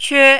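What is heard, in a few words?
"que", Mandarin, first tone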